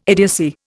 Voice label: "idiocy", Indian